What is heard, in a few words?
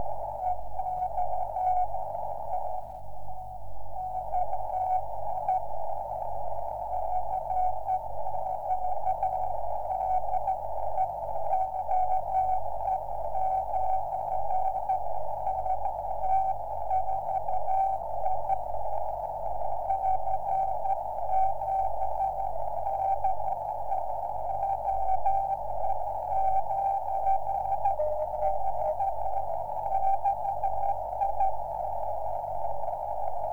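cq, 1296 MHz; 1296 eme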